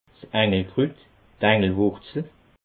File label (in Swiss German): "engelkrut", alsace